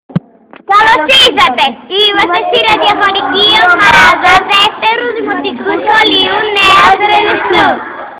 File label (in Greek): Spot; raidestos